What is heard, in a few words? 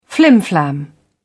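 "flimflam", British English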